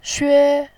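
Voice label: "xue", Mandarin Chinese, tone 1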